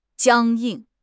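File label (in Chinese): "僵硬", Chinese; HSK; HSK6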